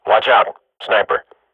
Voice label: radio bot under fire sniper, swat; Counter Strike Model Pack; CS:GO